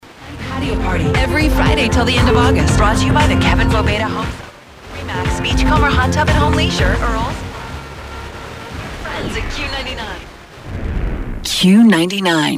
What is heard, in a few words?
area ads, FM DX; ADULT CONT; Es (1003 miles); CIKT 98.9 GRANDE PRAIRIE AB 100; RDS Q99, only match